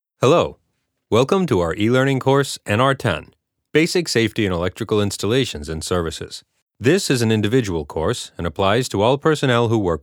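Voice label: American elearning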